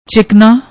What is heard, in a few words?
"chiknaa", Punjabi; ਚਿਕਨਾ